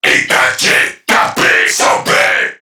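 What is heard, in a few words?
Player Spotted Crewmen, English; Warframe; CorpusCrewmen; CrewmanA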